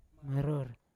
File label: "maror", Yawa; hungry; unat